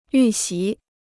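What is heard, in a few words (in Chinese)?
"预习" Chinese; yù xí; to prepare a lesson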